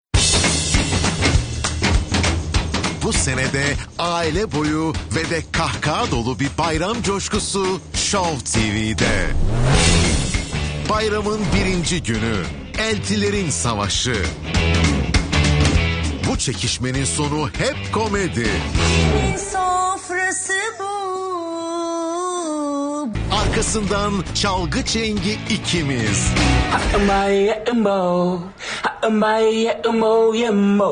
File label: turkish promos